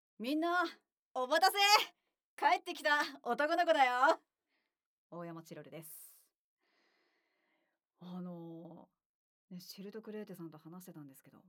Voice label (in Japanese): フリートーク; ASMR